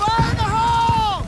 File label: us fire in hole; dod; dod 1.3; dod enhancement pack